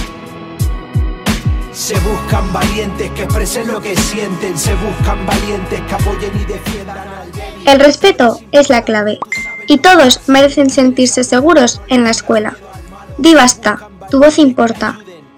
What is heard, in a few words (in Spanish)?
Cuna publicitaria